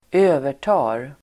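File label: "övertar", Swedish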